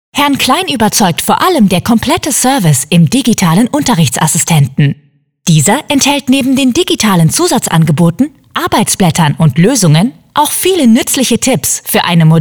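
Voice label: female, German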